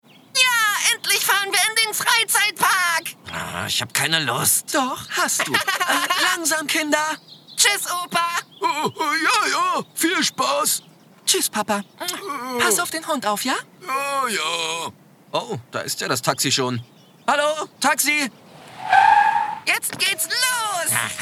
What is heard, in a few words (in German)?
Hoerspiel, Audio Drama (Hörspiel), Children's Voice (Kinderstimme), German